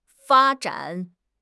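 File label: "fa1 zhan3", Mandarin Chinese; HSK4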